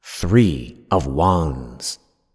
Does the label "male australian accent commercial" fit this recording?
No